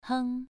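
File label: "heng", Mandarin Chinese, second tone, pronunciation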